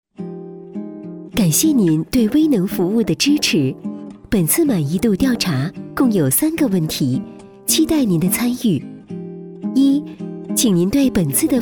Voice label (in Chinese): 女 语音导航 甜美温婉 满意度调查